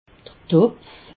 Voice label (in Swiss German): "topf", alsace